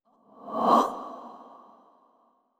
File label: curious short; yamiko